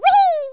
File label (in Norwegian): "bungee", Norwegian; Speech; 11khz